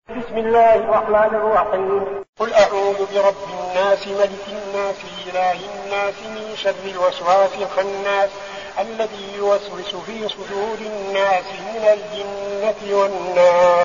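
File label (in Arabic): الناس, تلاوة; المسجد النبوي; فضيلة الشيخ عبدالعزيز بن صالح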